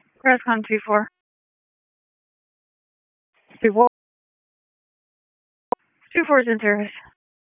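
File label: EMS DISP, radio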